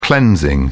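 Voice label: "cleansing", English, uk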